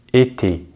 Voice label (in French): "été", French; Vocales orales en francés; easypronunciation